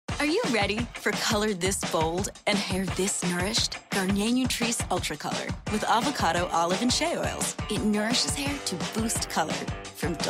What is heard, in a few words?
commercial, men